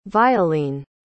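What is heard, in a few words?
"violine", spoken word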